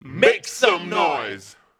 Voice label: Male FX Vocals; Houseworx